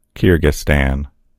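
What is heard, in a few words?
"kyrgyzstan", English; gcompris; geography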